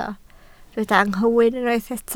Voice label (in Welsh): "anghywir", Welsh